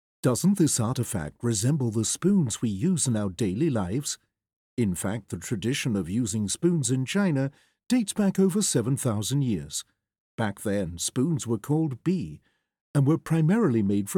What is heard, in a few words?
ENG; audio guide